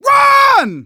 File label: emphatic run, manager, survivor, voice; Left 4 Dead 2; left4dead2 dlc2